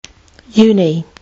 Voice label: "uni", Kiwi English

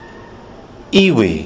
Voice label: "iwi", kiwi english

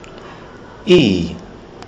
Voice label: i as in iwi, kiwi english

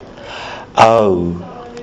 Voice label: au as in kauri, kiwi english

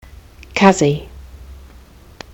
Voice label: "cuzzie", kiwi english

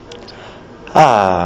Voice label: a as in hangi, kiwi english

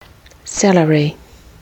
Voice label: "celery", kiwi english

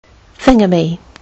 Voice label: "thingamy", Kiwi English

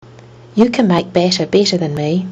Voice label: you can make batter, Kiwi English

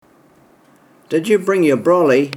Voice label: did you bring your brolly, kiwi english

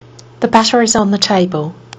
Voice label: "the butter is on", kiwi english

